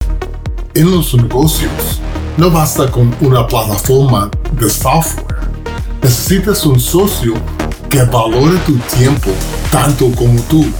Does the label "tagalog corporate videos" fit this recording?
No